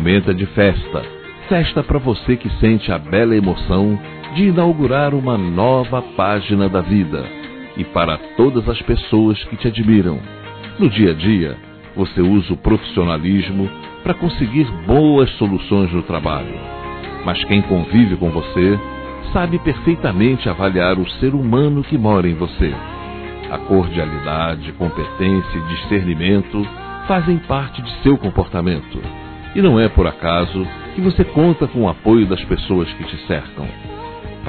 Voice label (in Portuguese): Aniversário Chefe Masculino, Telemensagens; Empresarial; Telemensagens 2017